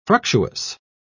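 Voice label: "fructuous", British English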